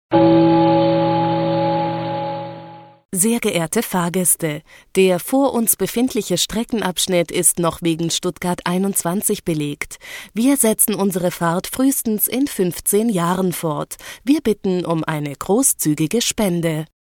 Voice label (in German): Ansage